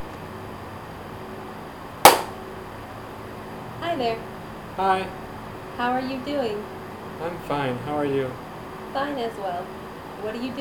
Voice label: Male Part mono